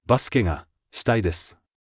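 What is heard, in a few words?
anime, Japanese, Hilarious Anime Quotes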